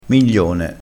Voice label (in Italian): "miglione", crespinese